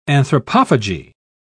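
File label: "anthropophagy", English, British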